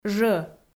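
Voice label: "жӧ", Komi, female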